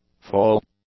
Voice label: "fall", English; nouns